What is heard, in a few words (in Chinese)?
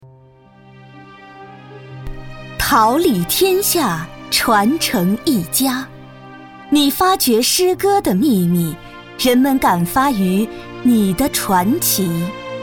颁奖 深情 走心